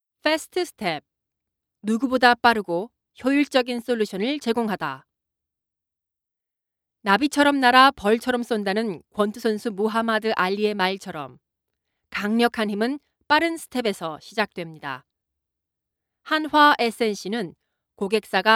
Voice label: Korean Narration